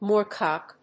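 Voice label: "moorcock", English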